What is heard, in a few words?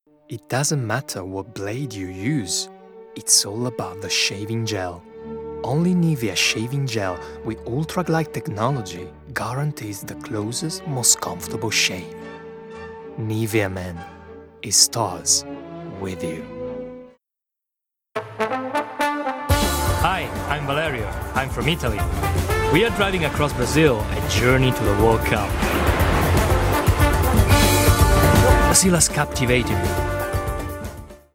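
Italian English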